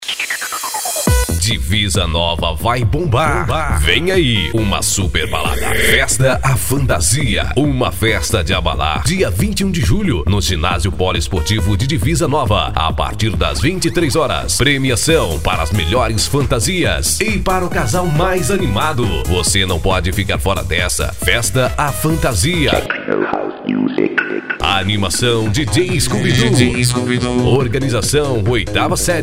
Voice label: spot